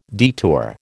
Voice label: "detour", American